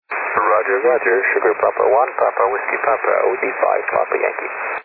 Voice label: 10m ssb